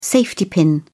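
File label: "safety pin", British English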